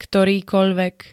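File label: "ktorýkoľvek", Slovak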